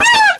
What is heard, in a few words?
"bow" cartoon, Voice; ooh ahh